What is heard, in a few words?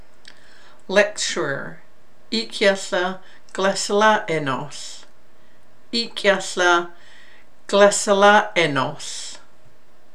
Lecturer